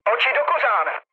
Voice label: player friendly fire, speech cze, mood low

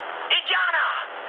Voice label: enemy detected, mood high, speech ge; War Thunder

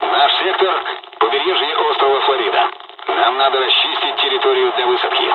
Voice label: dialog, dialogs russian; War Thunder

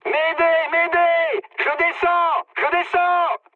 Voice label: aircraft destroyed mood high, speech fr

